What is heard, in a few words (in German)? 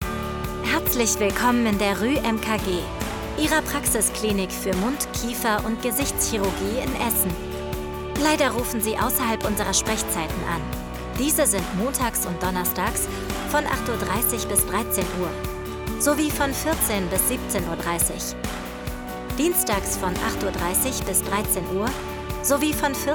Telefonansage Kieferchirugie; RuMKG; Essen